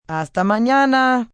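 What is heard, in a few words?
Til Tomorrow Spanish F, Goodbyes, female, foreign, Spanish 'Hasta Manana'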